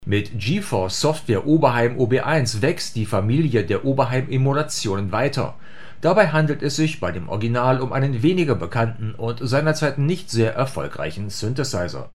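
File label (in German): Rode Interview Pro Onboard rückseitiges Besprechen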